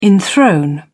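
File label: "enthrone", English, British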